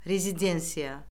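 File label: "rezidensiya", Azerbaijani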